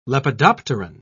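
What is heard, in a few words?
"lepidopteran", British English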